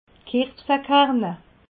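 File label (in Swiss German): "kirbsekerne", Alsatian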